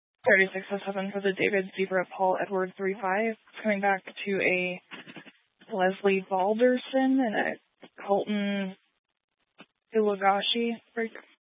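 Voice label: Police Dispatch, scanner audio recording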